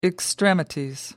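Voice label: "extremities", British English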